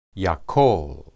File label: "yakol", Hebrew